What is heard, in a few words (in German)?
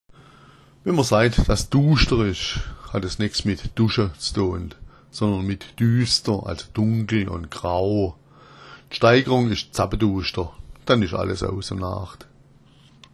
duuschter, schwaebisch